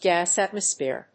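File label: "GAS ATMOSPHERE", English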